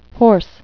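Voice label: "hoarse", English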